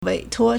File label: "wei3tuo1", Chinese